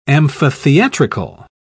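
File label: "amphitheatrical", British English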